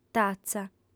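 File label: "tatza", female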